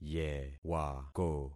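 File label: "yewako", concatenated syllables; Affixing experiment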